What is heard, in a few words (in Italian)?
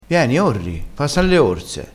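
"corse", dialettale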